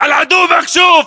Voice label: threat infantry exposed, battle chatter, english